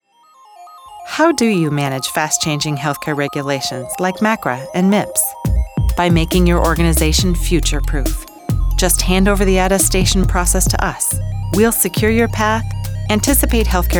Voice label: E-Learning